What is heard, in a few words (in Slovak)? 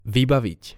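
"vybavit", Slovak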